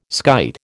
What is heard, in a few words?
"skite", American